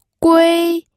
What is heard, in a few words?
"gui1", pinyin audio